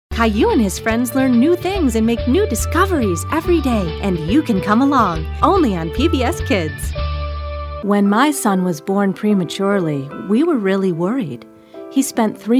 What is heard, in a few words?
Women Commercial